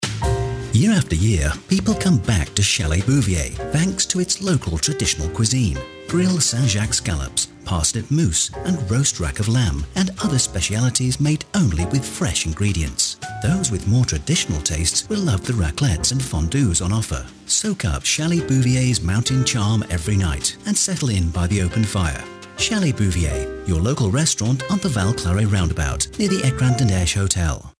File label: British Voiceover Radio Commercial; CHALET BOUVIER